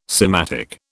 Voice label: "sematic", British